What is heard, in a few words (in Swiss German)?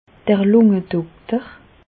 "lungedokter", Bas Rhin; medecine; pneumologue